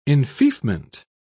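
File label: "enfeoffment", British English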